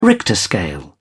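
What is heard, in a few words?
"richter scale", British English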